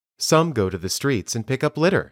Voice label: Dictation